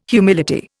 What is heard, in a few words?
"humility", Indian